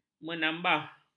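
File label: "menambah", Malay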